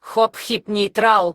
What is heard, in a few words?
girl Hophip